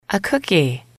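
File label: "a cookie", female, English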